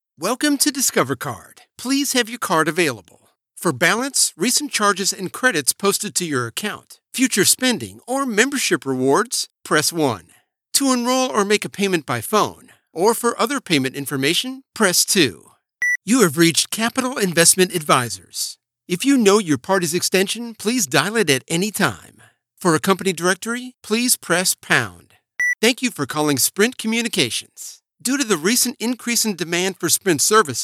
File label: Telephony